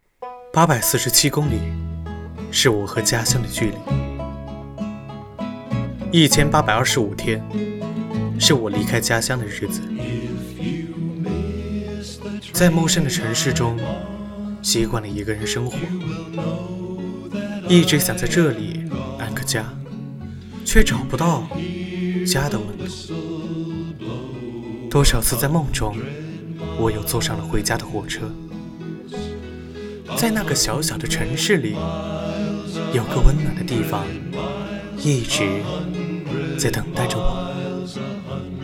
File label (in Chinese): 公益 广告 配音